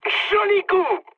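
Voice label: French bomb success mood high; War Thunder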